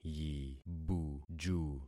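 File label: "yibuju", concatenated syllables; Affixing experiment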